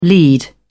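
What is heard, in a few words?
"lead off", English, British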